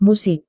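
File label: "musik", Indonesian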